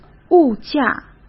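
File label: "物价", Mandarin Chinese; chinese-flashcards; hsk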